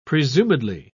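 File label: "presumedly", British English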